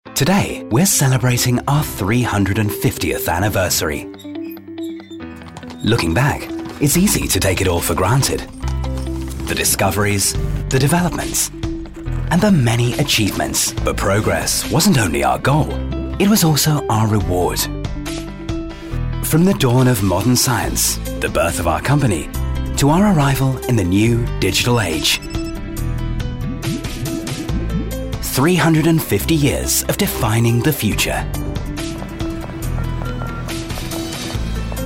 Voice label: Freundlich

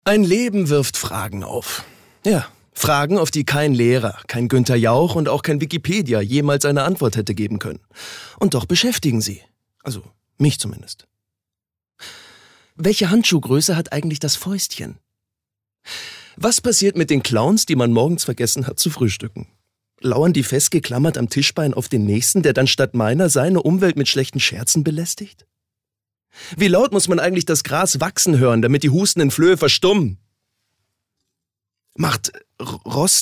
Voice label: Schauspiel Dynamisch

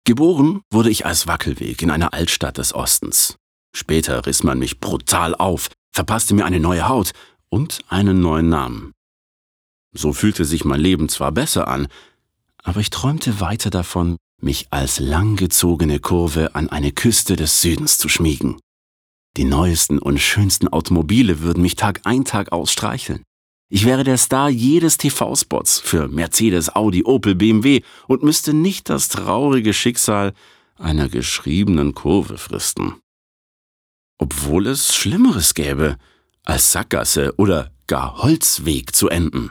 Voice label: Der Weg Freundlich

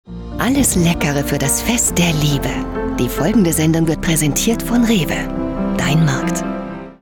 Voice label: Sanft; Fremdtake

